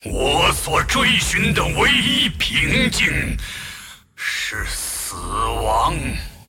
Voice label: move, zh